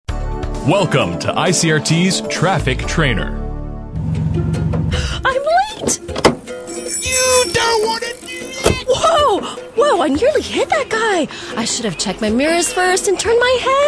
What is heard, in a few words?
Car Door Safety English; ICRT; Taiwan; TrafficTrainer